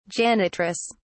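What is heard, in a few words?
"janitress", British English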